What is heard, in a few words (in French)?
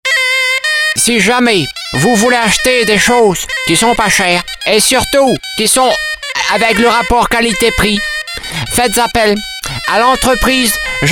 breton